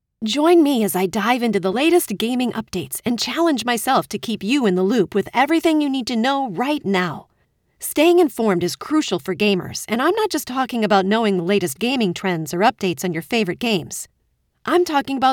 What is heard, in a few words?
Explainer Gaming